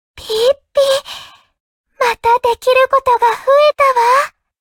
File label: level up, ja; cookie run